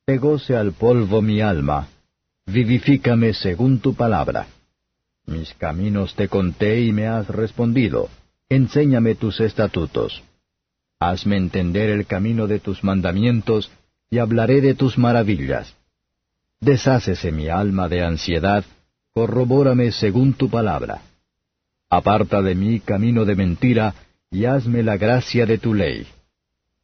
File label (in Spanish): salmos spanish; 119; daleth